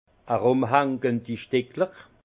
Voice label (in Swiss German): "stickle", alsace; vin; particules en suspension